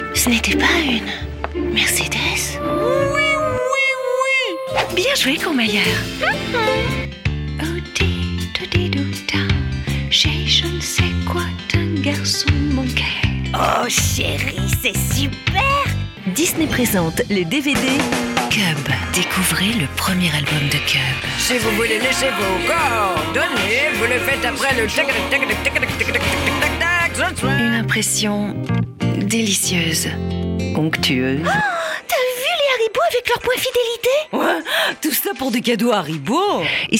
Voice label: french announcer character montage, French Female Voice Over